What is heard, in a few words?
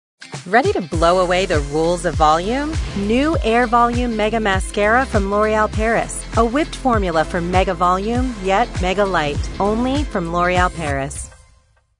Commercial; L'Oreal